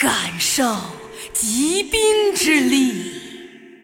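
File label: attack, Chinese; Lissandra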